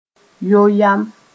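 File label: "yo yam", Mossi; nag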